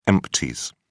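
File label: "empties", British English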